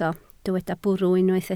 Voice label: "bwrw", Welsh